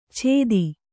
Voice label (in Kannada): "ಛೇದಿ", Kannada